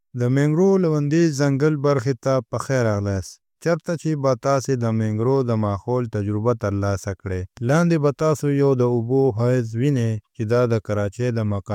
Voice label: Pashto, Audio Guides